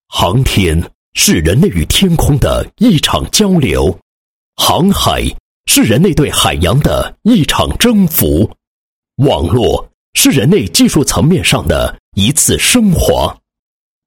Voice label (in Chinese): 男 广告 宣传; 实现进口产品贸易半径辐射全国